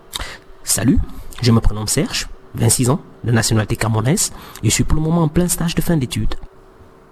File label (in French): FRENCH